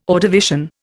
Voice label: "Ordovician", Indian

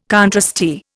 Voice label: "contrasty", Indian

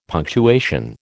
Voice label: "punctuation", American

